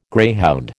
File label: "greyhound", American